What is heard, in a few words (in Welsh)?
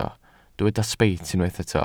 "sbeit", Welsh